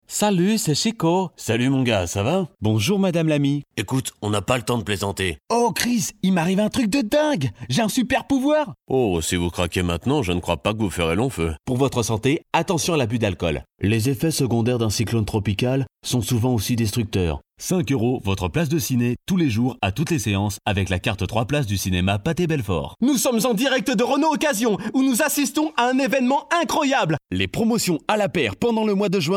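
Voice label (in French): French, male, character; voice demos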